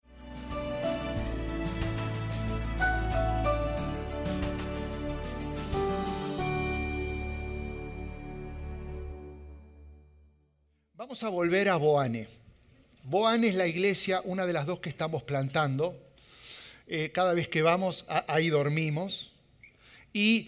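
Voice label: SERMON SPAN, Spanish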